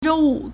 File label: "zhou1 wu5", Chinese